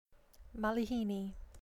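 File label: "malihini", Hawaiian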